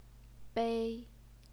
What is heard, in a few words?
"bei1", Chinese pinyin